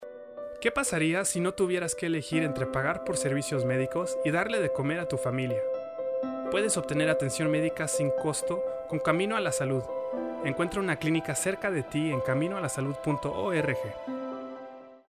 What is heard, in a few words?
Announcer